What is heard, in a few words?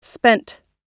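"spent", US English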